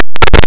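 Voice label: Voice Acting es, female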